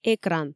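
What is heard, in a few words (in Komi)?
"экран", Komi, female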